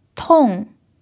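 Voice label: "tong4", Mandarin pinyin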